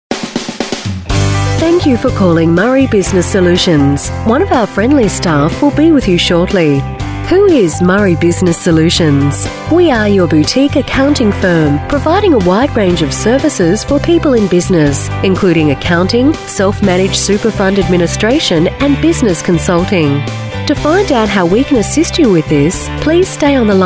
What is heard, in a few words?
Female Talent